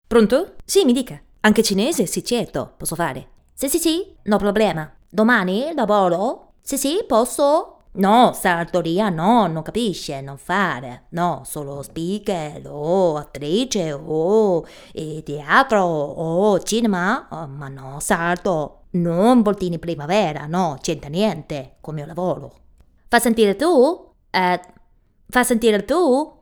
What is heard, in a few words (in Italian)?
cinese breve